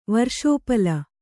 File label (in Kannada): "ವರ್ಷೋಪಲ", Kannada